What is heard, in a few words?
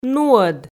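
"нуӧд", Komi, female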